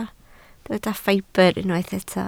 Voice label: "ffibr", Welsh